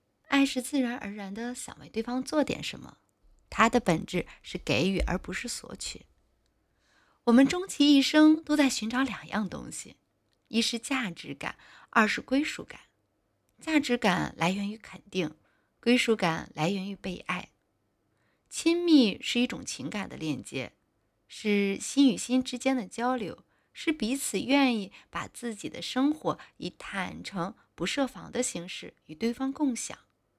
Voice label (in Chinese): 文案配音